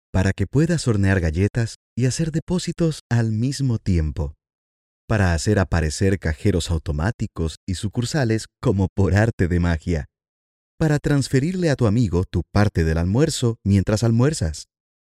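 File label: Spanish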